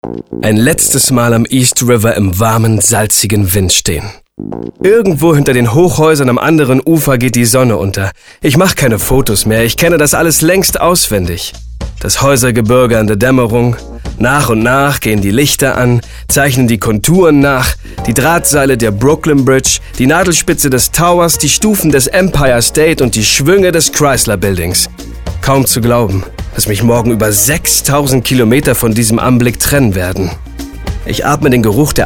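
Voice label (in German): Erzählung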